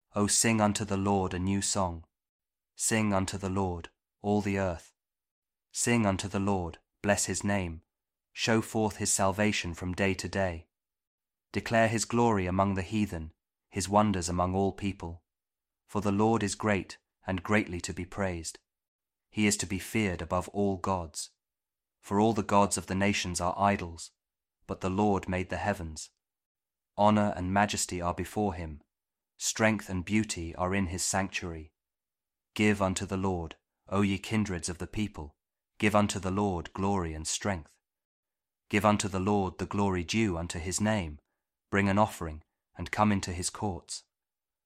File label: psalm kjv king james audio bible